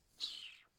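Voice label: "mtschew", Nigerian Pidgin, female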